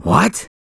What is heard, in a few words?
"What", Male; gangsters